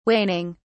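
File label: "waning", English, British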